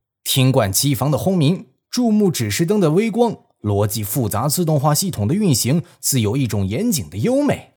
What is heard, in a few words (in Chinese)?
男 角色